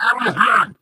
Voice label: impatient